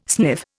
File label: "sniff", Indian